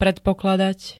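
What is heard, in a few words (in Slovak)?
"predpokladať", Slovak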